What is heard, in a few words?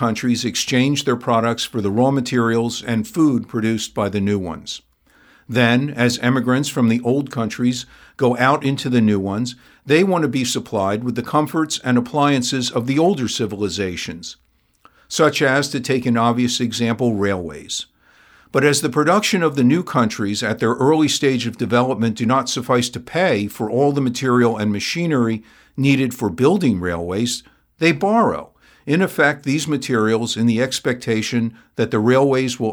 Intl Finance Narration